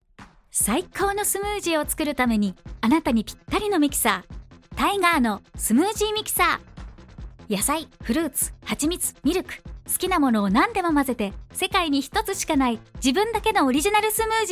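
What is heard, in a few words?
Japanese Commercial Upbeat; GoLocalise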